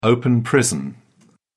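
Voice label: "open prison", British English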